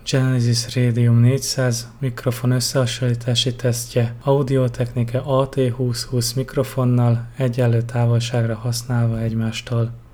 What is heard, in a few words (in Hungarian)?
AT2020 osszehasonlitas, mikrofon